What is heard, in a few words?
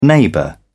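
"neighbour", British English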